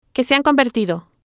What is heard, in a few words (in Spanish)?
"Que Se Ha Convertido", spanish